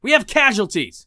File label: friendly down; Escape from Tarkov